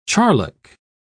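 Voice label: "charlock", British English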